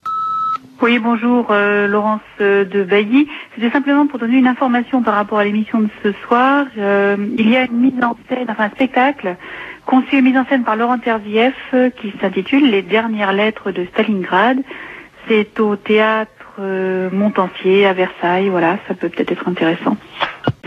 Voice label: Stalingrad repondeur

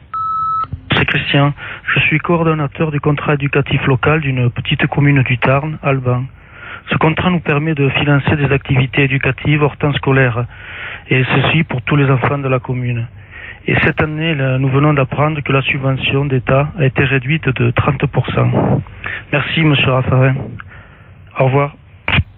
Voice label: repondeur; Suppression subventions

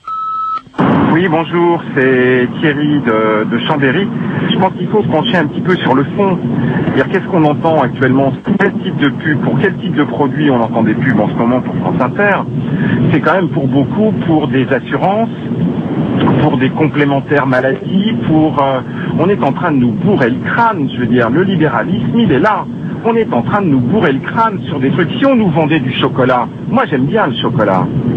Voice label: Pub répondeur; France Inter

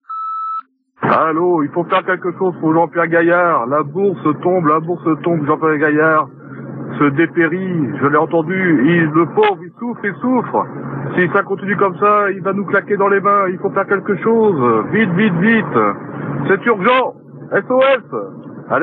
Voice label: Liberation Papon repondeur

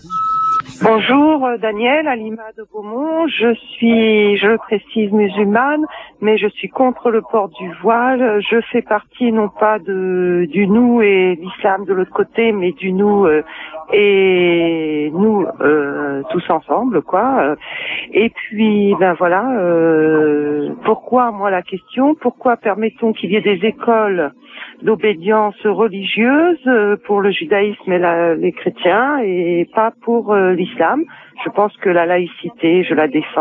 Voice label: répondeur

